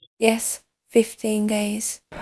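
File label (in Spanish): Voice Acting, Spanish, female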